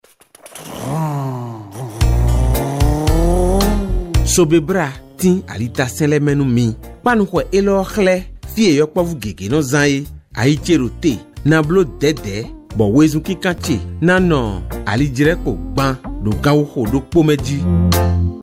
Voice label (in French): CODE DE ROUTE FON